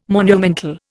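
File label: "monumental", Indian English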